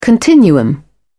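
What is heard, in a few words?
"continuum", British English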